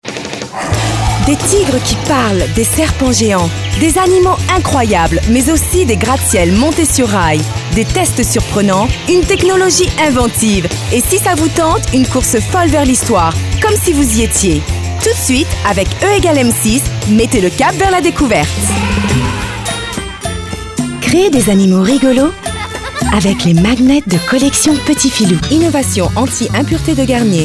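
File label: French Commercial; GoLocalise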